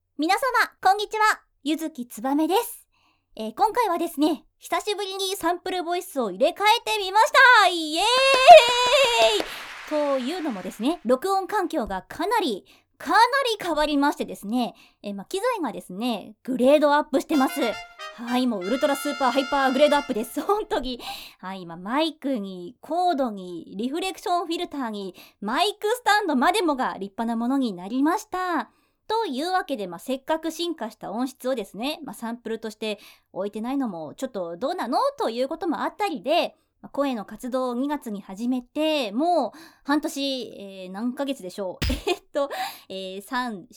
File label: free talk